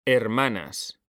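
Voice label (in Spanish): "hermanas", Spanish, male; mekanta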